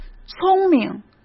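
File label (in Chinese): "聪明", Mandarin Chinese; hsk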